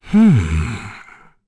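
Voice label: Vox Think, English; Dakaris; kingsraid; voices heroes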